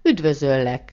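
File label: welcome, Brazilian Portuguese; gcompris-data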